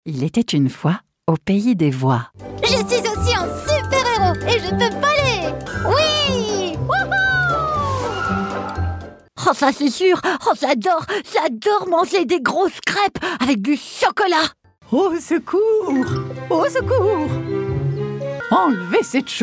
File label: french female character